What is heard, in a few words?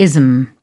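"ism", British English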